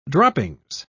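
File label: "droppings", English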